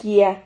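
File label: "quia", Spanish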